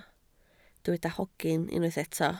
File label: "hogyn", Welsh